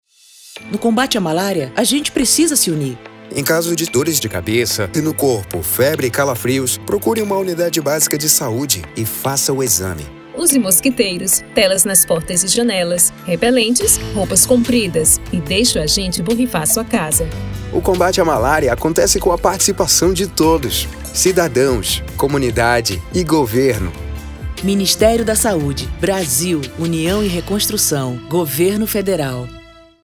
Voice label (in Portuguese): spot; ms malaria